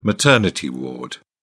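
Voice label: "maternity ward", British English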